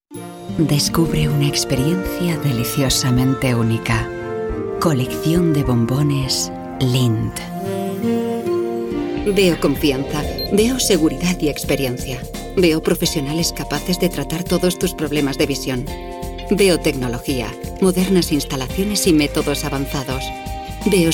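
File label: Spanish Spain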